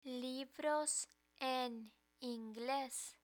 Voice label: "libros en ingles", Spanish

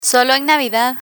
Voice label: "solo en navidad", Spanish; social history

